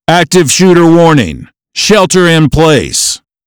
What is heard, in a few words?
Active Shooter Warning